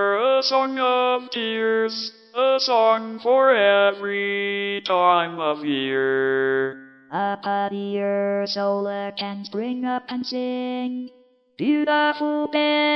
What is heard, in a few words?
DECTALK VOICES